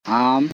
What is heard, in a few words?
"Aam", Korku; You